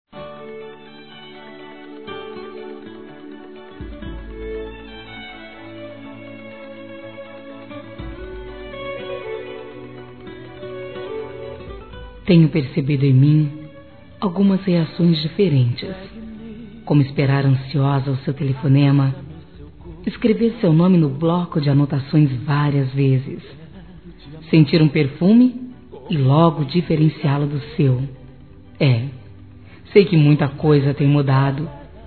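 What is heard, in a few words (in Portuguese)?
ANIVERSÁRIO ROMANTICO, female; Dia Dos namorados; Telemensagens 2017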